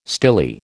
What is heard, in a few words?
"stilly", American